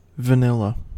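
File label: "vanilla", US English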